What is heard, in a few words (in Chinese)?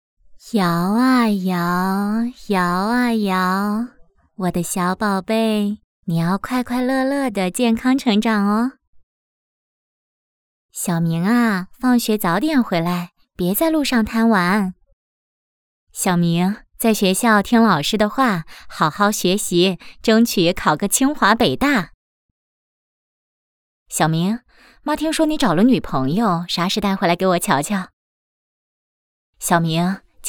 角色 妈妈 年轻到老